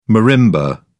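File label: "marimba", English, British English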